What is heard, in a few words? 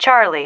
"charlie", female